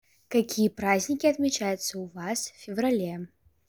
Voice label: февраль, русский

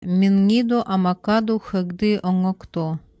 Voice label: ЭВЕНСКИЙСКИЙ; Нос

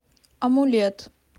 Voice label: "амулет", [амул’эт]

